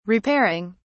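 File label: "repairing", English, British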